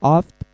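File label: "avd", Ossetic, male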